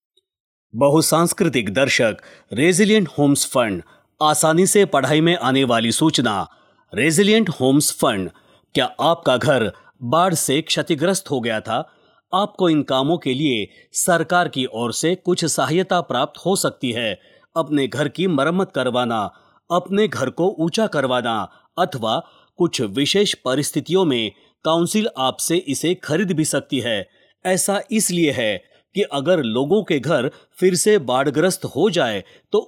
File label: hindi